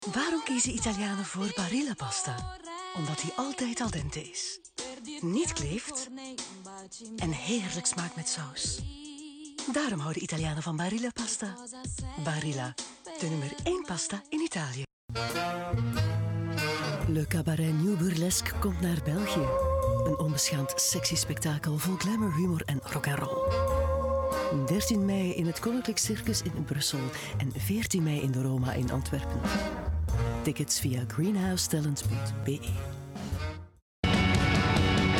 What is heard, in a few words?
Voice Over